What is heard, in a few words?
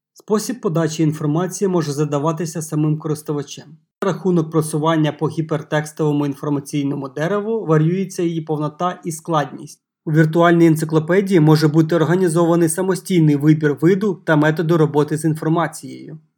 Ukrainian, Male